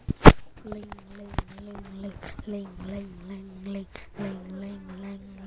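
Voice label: "ring", spoken word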